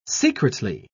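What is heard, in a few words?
"secretly", British English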